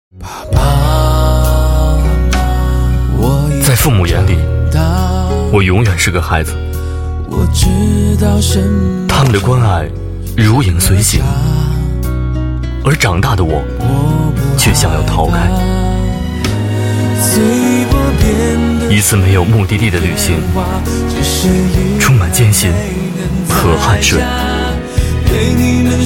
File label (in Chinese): 男 专题 励志形象片 内心讲述, 国语男声配音; 天狮; 央视